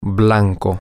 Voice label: white, spanish, M